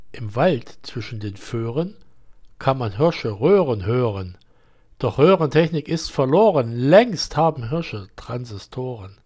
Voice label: Mikrofon SGH50

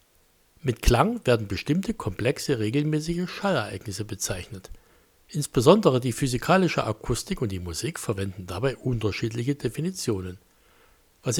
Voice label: Mikro tBone

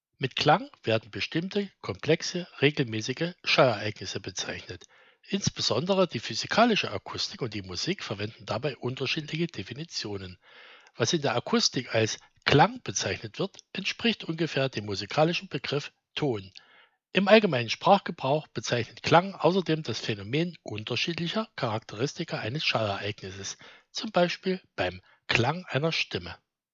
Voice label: Mikro Sennheiser

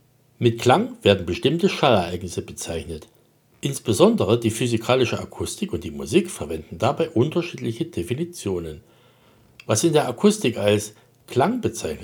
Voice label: Klang mit Abstand

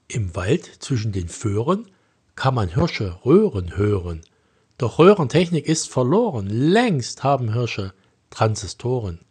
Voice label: Mikrofon Condenser

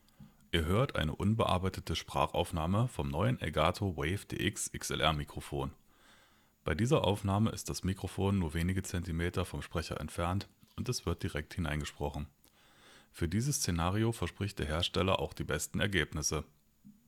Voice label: Elgato Wave DX direkt

